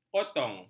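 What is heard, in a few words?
"kotong", Malay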